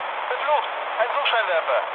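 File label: German searchlite mood low, speech; War Thunder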